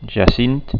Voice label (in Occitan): "jacint", occitans